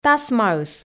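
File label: "тасмаыс", Komi, female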